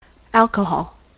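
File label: "alcohol", US English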